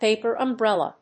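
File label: "paper umbrella", English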